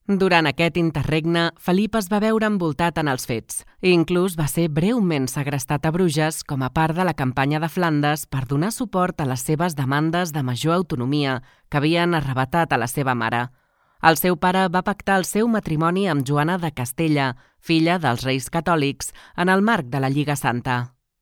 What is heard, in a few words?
locutora catalan voiceover